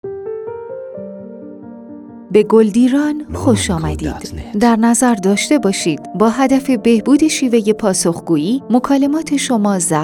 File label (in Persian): گوینده خانم فارسی, ivr narration